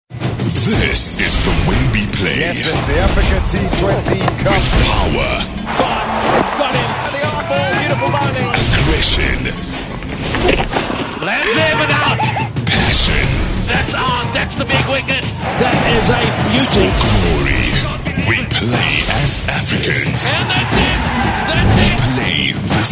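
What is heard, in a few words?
English HardSell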